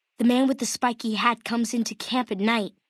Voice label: Male child greeting; Fallout NV